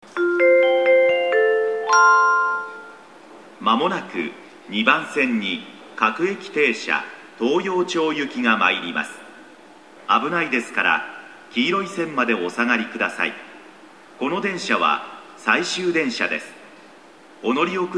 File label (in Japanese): 土休日最終電車の接近放送; 2番線終接近 -- 東陽町行土休日最終電車の接近放送です。; yachiyo-chuo